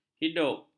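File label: "hidup", Malay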